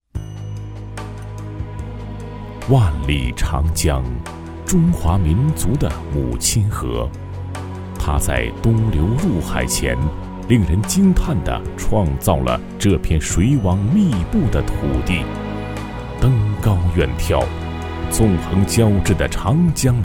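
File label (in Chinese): 男 纪录片（人文地理）; 纪录片 家在水乡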